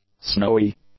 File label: "snowy", english; adjectives